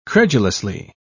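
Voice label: "credulously", British English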